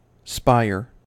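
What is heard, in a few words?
"spire", US English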